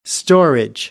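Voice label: "storage", American, English; AmericanBritish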